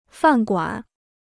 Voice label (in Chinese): "饭馆", Chinese